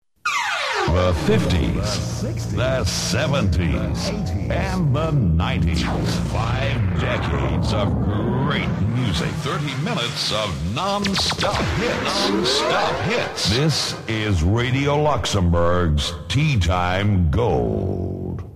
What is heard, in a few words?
Radio Luxemburg Jingle; Radio Luxembourg